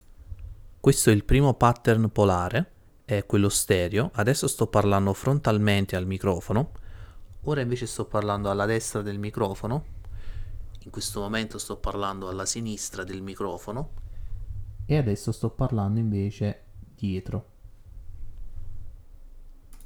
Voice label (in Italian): Test con pattern stereo